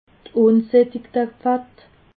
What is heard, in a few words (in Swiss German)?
"ungsattigte fatt", alsace